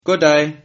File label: hello, Sylt Frisian